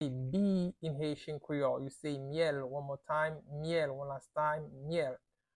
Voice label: Bee in Haitian Creole Myel pronunciation by a Haitian teacher